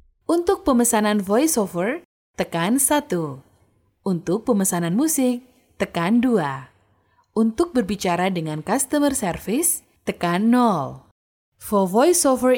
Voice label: IVR INDONESIA